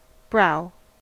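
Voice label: "brow", US English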